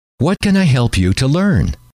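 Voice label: what can I help you to learn, male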